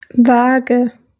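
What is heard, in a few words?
"Waage", German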